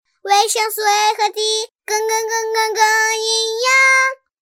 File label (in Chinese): 女, 女真童魔性